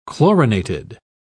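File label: "chlorinated", English, British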